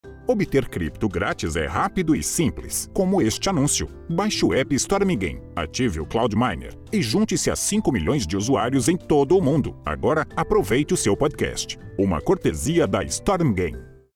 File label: PT-BR fast simple